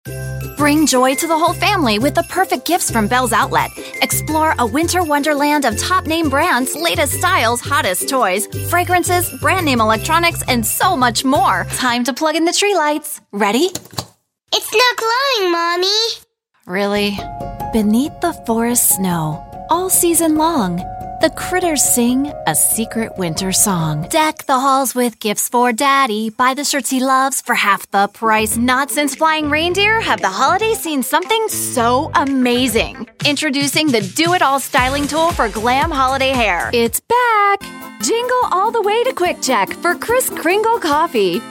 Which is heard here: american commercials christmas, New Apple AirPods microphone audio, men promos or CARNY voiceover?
american commercials christmas